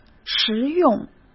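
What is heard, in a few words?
"实用", Mandarin Chinese; chinese-flashcards; hsk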